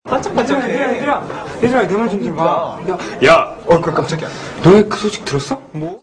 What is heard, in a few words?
man voice